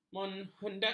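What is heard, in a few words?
"menghendaki", Malay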